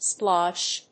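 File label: "splosh", English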